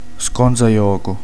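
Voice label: "guastafeste", Italian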